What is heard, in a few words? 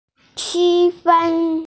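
"qufen", Mandarin Chinese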